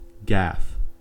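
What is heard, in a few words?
"gaffe", American English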